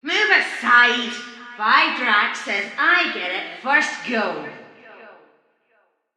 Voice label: Soldier Female Helmet, Barks Battle, en; Sinecure

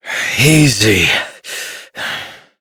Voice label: Horse Calm Adult; Duviri

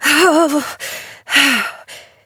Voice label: Operator Voice

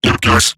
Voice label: Surprised Robot Large, Barks, Battle; Warframe; Eximus Corpus Robotic Large

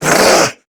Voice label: Threatened, Male

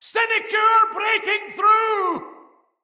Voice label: Fearful Cry, Soldier Male; Dendrit

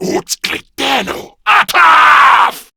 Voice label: Player Spotted, Male; Warframe; Grineer Male B; Battle Barks

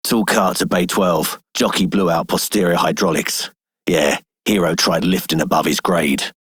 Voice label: Male Dispatcher, en; Dialog; Warframe; Solaris Venus

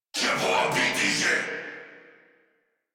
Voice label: Reload Crewmen, en; Warframe; Corpus Crewmen; Crewman A; Battle Barks

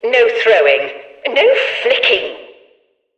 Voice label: Background Announcements

